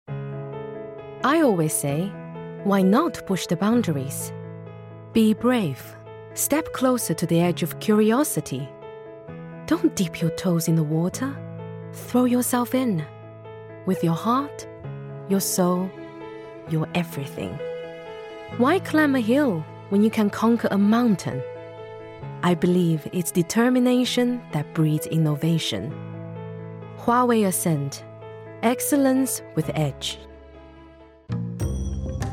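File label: Chinese accented English; GoLocalise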